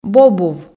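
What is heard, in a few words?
"бобув", Komi, female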